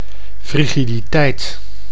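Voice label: "frigiditeit", Dutch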